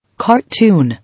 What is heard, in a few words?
"Cartoon", English